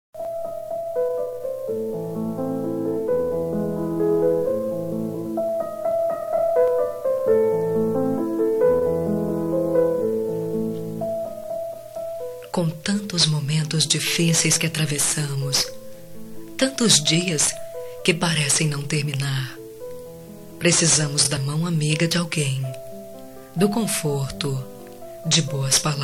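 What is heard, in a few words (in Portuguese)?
pesames fem, Telemensagens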